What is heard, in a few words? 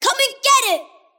attack pain; kidvoker; dota; invoker